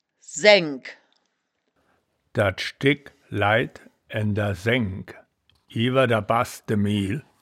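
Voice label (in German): "sengk", Wittlicher Wörterbuch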